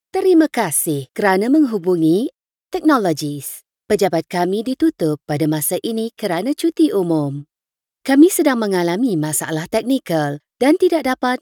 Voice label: IVR Malay

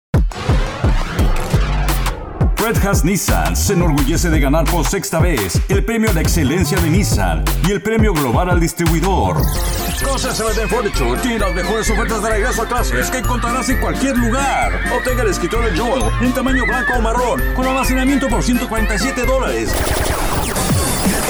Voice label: Spanish

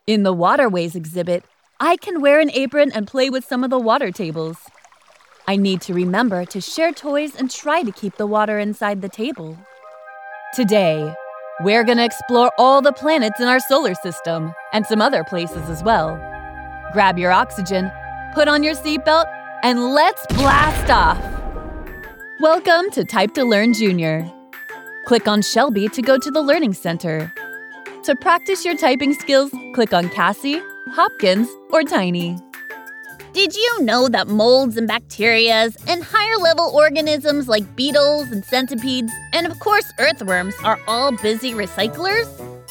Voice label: VO Kids eLearning

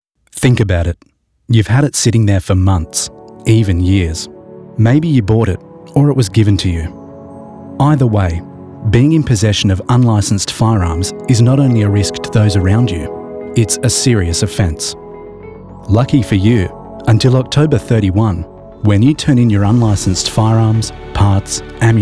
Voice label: Govt Announce

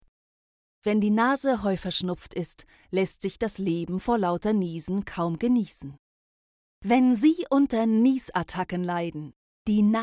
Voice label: advertisement medicine German

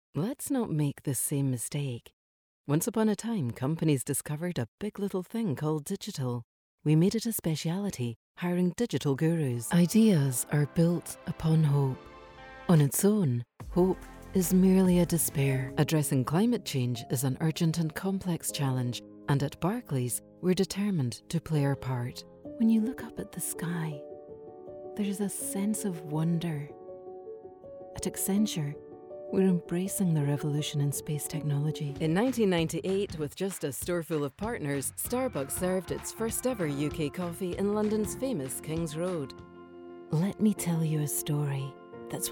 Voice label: Voiceover Showreel

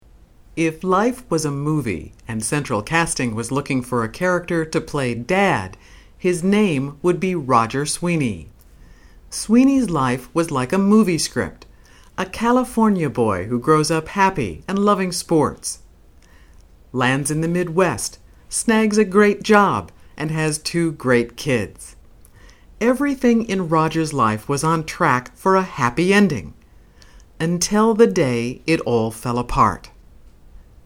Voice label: Story-Narration

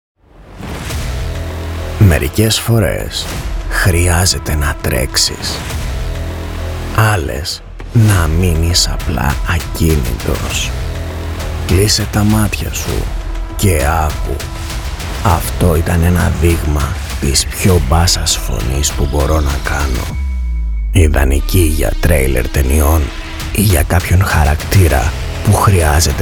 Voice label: Trailer Extra Deep Voice Greek

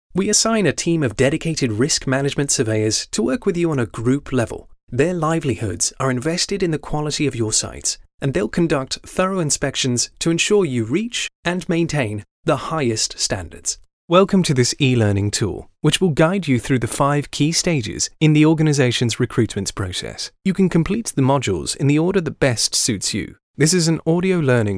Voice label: Corporate

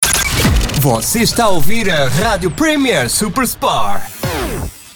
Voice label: radio PT EU

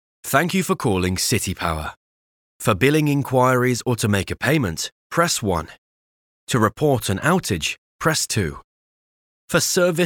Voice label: IVR System British

